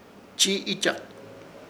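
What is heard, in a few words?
"Chiiichak", Chamacoco word, dar nalgada; Ishir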